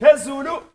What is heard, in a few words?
"hey", Zulu; Spectrasonics; Heart of Africa 1